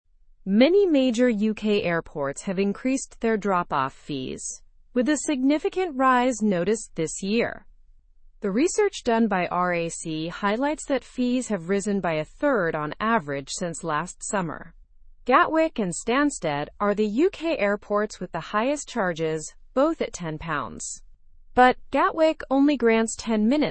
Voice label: learn english through news upper intermediate; price rise in uk airport drop off fees